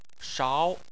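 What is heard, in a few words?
"shao" tone 2, Chinese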